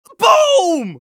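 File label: scout taunt flip fun, male voice; tf2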